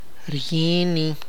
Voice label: "hits", tlacochahuaya

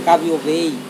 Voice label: "kaviovei", Serewen

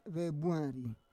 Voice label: "vebuari", Roon

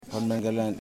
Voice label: My Son, yimas

